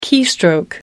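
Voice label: "keystroke", British English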